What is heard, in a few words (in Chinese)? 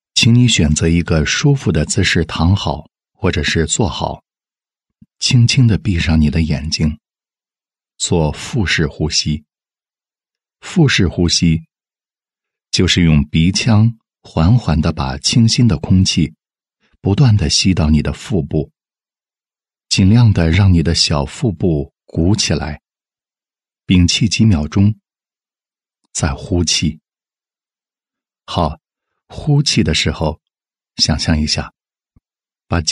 男 瑜伽 催眠 柔和缓慢; 质感磁性